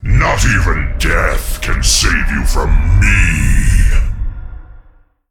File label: Male Demon Start